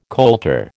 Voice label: "colter", American

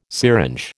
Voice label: "syringe", American

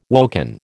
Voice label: "woken", American

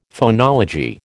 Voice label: "phonology", American

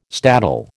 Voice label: "staddle", American

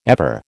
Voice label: "ever", American

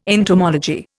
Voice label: "entomology", Indian

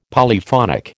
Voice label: "Polyphonic", American

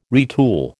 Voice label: "retool", American

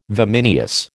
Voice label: "vimineous", American

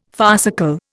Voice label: "farcical", Indian

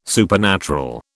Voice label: "supernatural", British

